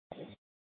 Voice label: Mesa PD Fiesta Dispatch; 1 Fiesta Dispatch

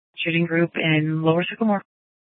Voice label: Sheriff, scanner P25; Shrf Lakes

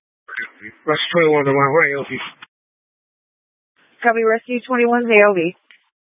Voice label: Phx PD Fire Dispatch

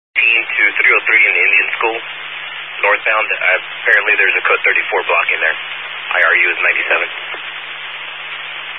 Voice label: Highway Patrol, Phoenix Metro West Area, scanner

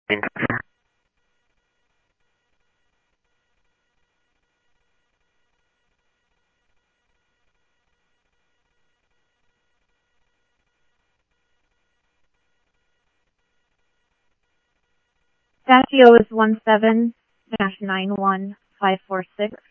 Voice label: Police, scanner

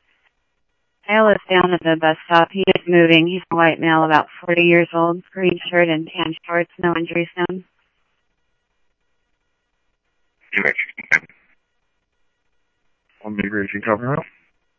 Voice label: Sheriff Central, scanner audio

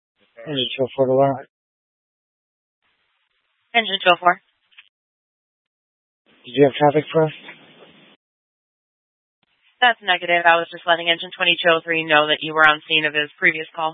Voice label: Fire Departments Mesa Simulcast; TOPAZ

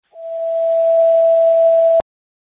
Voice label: FD Dpts VHF 156.240 Patch

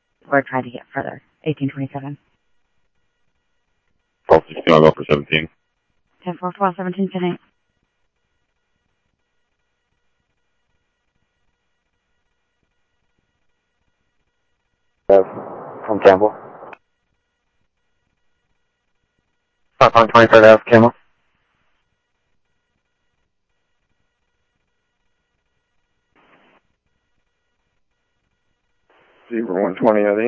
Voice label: Police, scanner audio

